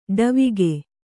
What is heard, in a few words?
"ಡವಿಗೆ", Kannada